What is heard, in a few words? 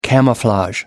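"camouflage", British English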